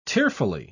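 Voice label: "tearfully", British English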